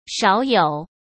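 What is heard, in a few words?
"少有", Mandarin Chinese; chinese-flashcards; hsk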